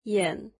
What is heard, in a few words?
"eye", mandarin